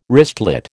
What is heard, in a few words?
"wristlet", American